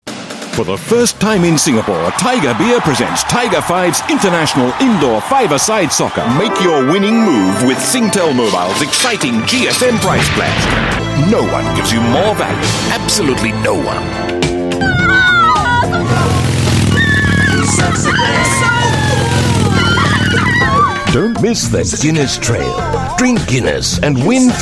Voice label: Mid Atlantic AU English Voice Sample, English Australian Male